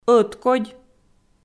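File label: "ӧткодь", Komi, female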